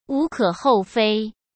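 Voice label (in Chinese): "无可厚非", Mandarin Chinese; hsk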